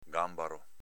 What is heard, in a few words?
"ganbaro", dialetto veneto; s.m. gambero